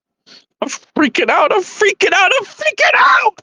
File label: voice message